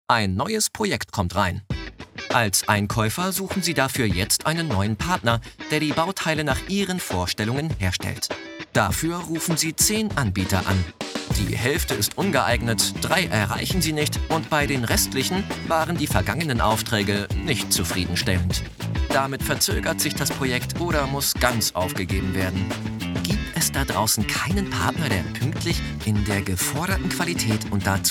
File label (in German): Imagefilm, Commercial (Werbung), German